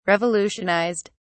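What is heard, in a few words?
"revolutionised", British English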